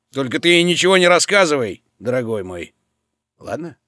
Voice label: german